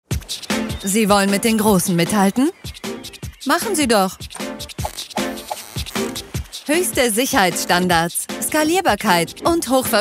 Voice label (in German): Dynamisch